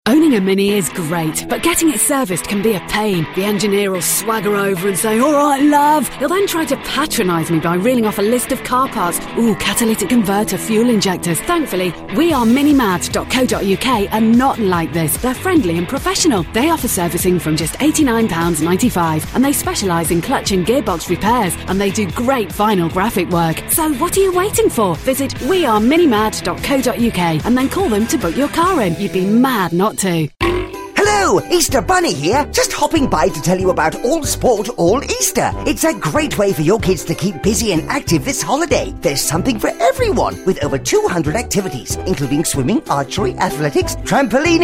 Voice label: radio ads

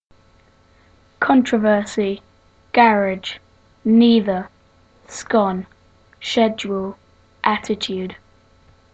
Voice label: bedfordshire uk male

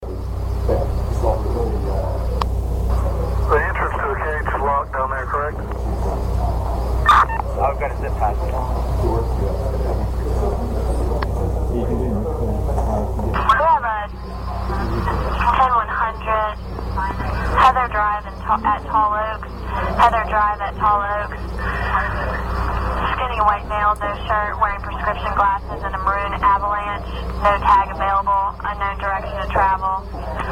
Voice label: audio grab from police scanner; possible virginia tech suspect sighted

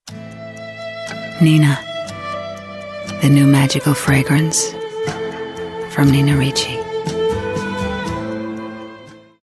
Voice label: advert; nina ricci

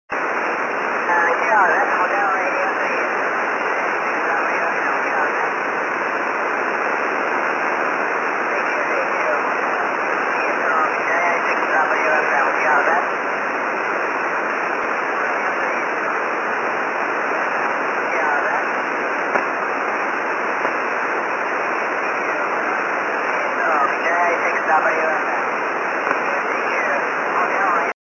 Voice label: 6m ssb; hr3

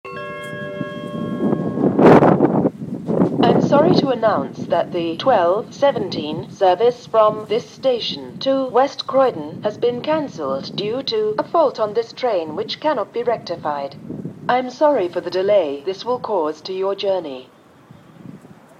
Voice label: New Cross Gate announcement

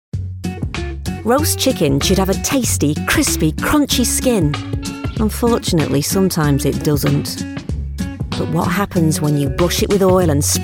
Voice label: advert; oxo demo

